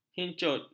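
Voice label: "hincut", Malay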